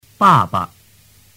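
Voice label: "baba", Mandarin Chinese; tu moi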